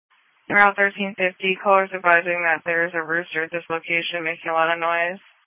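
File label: Police Patrol Dispatch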